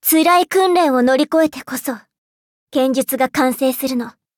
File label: levelup ja; Cookie Run